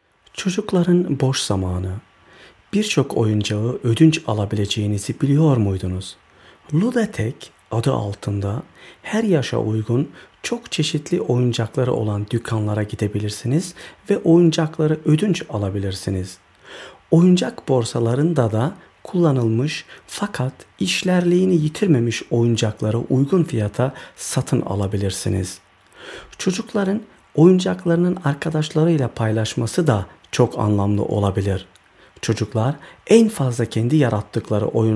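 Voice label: Turkish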